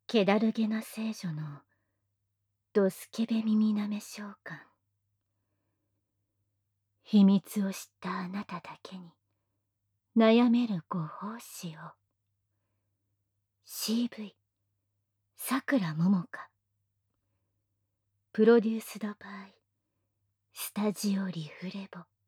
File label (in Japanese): タイトルコール, ASMR